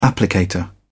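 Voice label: "applicator", British English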